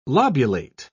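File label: "lobulate", English, British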